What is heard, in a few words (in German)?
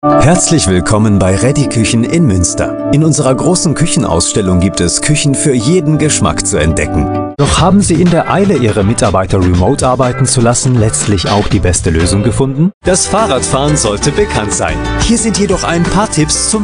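German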